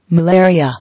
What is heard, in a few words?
"Malaria", English